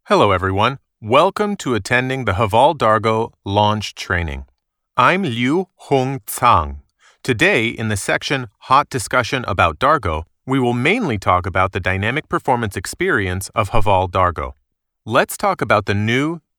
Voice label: 外籍男英 美式英语 日常口语每分200; 外语

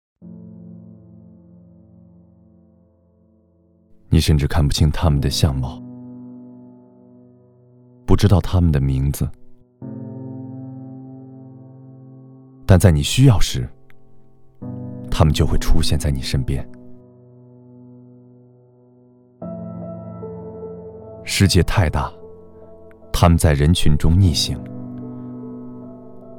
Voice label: 男国 其他 旁白; 逆行者

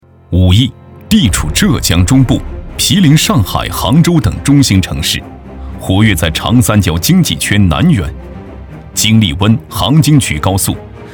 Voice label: 宣传片 城市 城市宣传片, 男

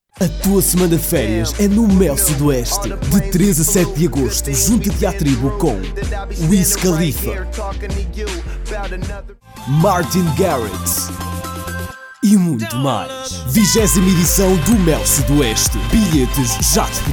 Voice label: 葡萄牙语男 外语

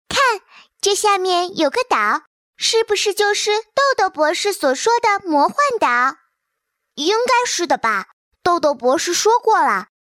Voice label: 动画 童声 男女童

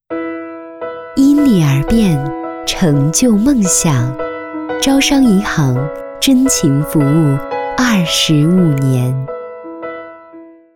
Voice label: 女 广告 银行 温柔; 招商银行

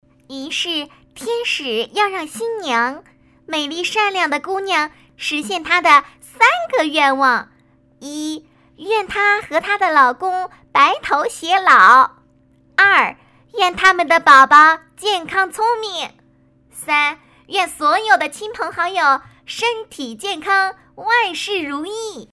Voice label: 动画 童声 女童, 女国

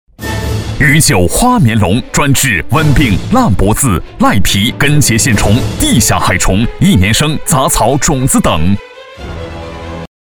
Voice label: 男国 广告 农化 激情力度农化 激情

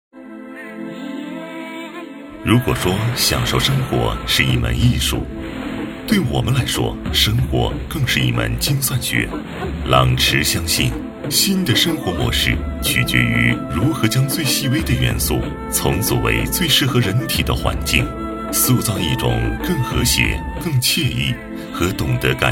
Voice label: 专题, 企业, 磁性; 朗驰科技

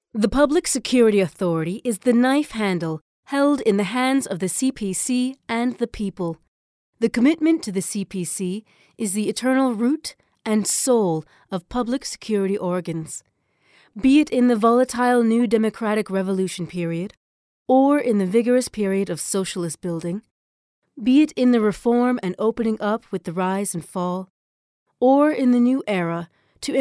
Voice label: 外籍女英 外语 美式英语 样音

